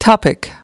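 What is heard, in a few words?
"topic", English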